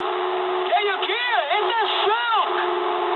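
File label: English bailout mood low; speech; War Thunder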